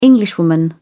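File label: "englishwoman", British English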